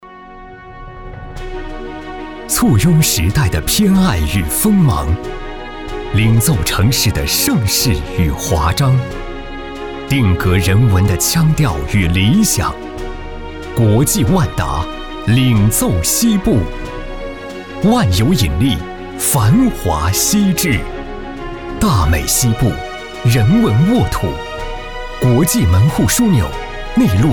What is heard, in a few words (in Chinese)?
男 大气广告; 西区万达